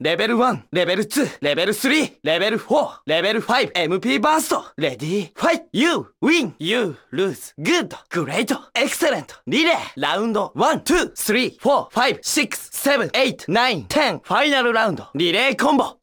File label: Japanese announcer; Sagi